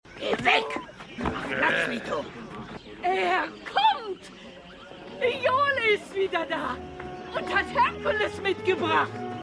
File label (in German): Aeltere Bewohnerin; Hercules